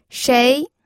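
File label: "shei2", Mandarin Chinese pinyin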